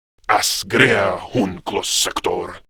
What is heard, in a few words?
Patrol, Male